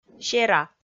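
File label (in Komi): "сера", Komi, female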